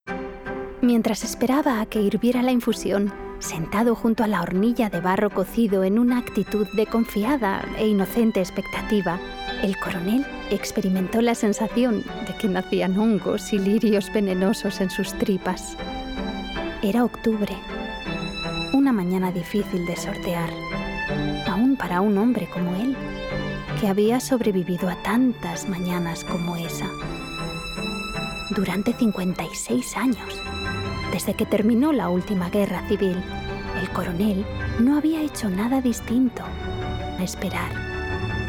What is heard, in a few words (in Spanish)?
Audiolibro